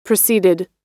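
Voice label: "preceded", American English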